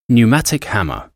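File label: "pneumatic hammer", English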